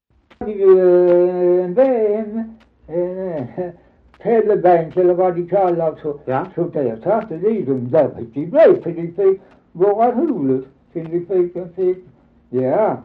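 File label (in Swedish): Dalby Skåne, dialekter; Folkminnen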